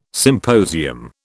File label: "symposium", British